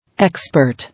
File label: "Expert", English